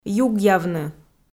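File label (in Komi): "югъявны", Komi, female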